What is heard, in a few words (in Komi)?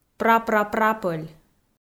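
"прапрапрапӧль" Komi, female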